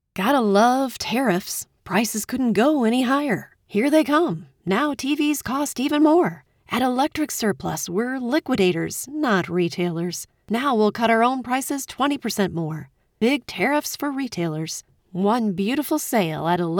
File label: Ad Electronics